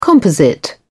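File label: "composite", English, British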